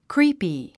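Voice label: "creepy", 英単語 発音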